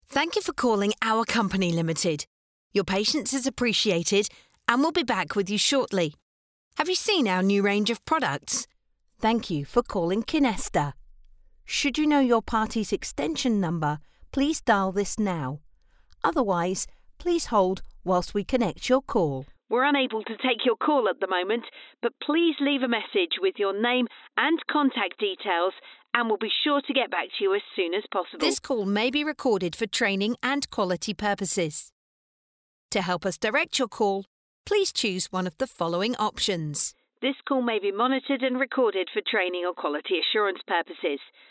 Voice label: IVR

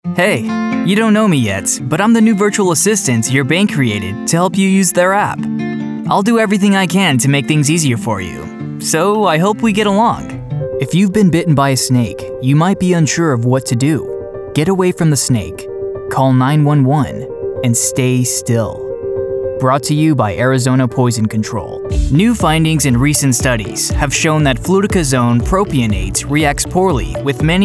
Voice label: Explainer E Learning

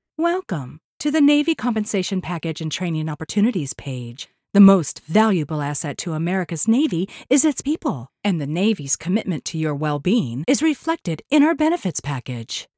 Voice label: Corporate

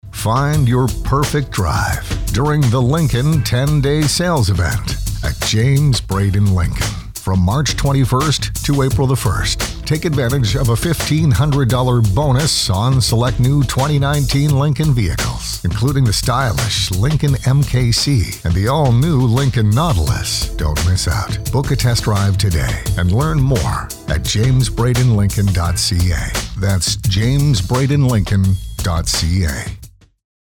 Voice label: Luxury Read

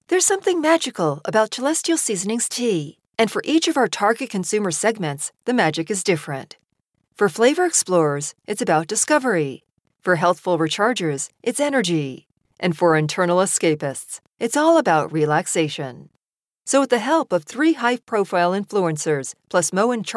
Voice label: Corp Explainer

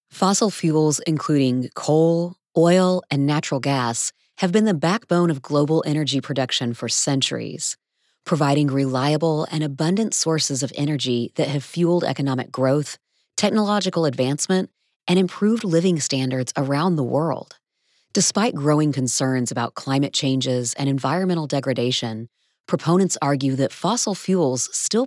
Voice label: Narration Auditions

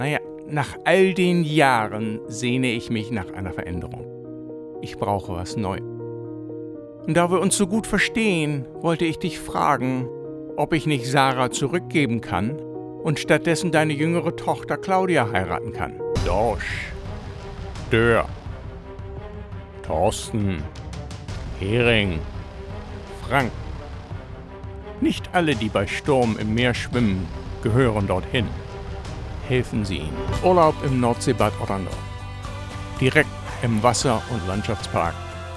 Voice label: German Commercial

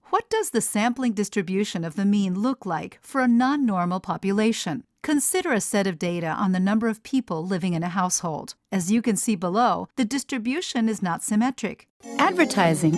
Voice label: eLearning English

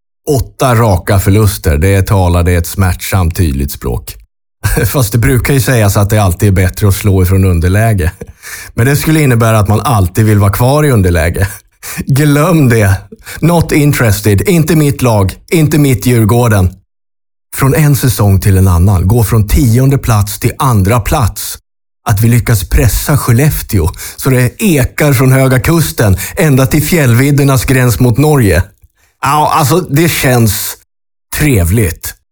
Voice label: Voice Over; Malta Films

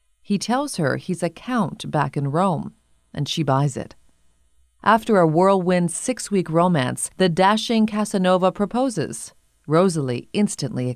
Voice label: TV Narration

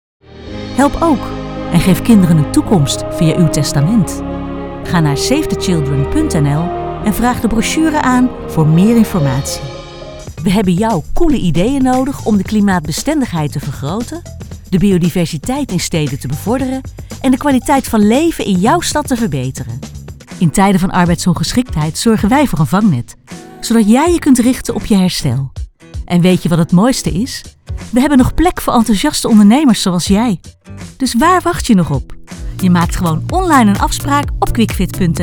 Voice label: commercials

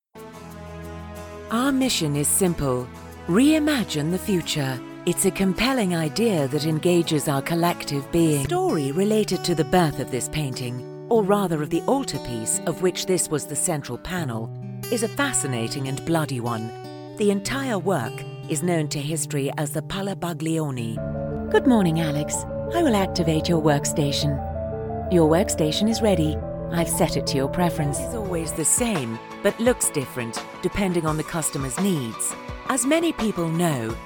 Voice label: BRITISH CONVERSATIONAL CORPORATE

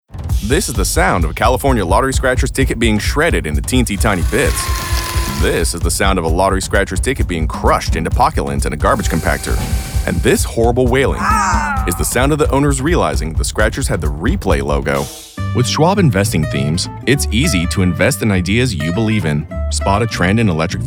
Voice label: VO Commercial Demo

